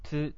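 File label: "tsu", Japanese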